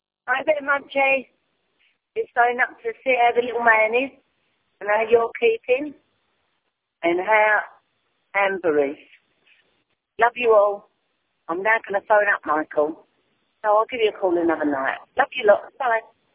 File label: voicemail